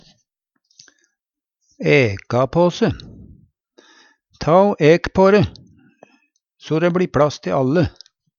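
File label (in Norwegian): æka på, Numedalsmål; Ta o æk på're, so dæ bLi pLass te alle.; flytte, ake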